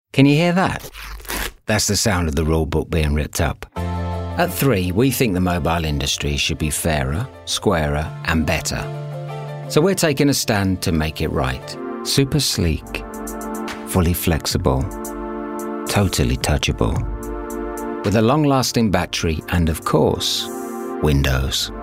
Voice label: Commercial Showreel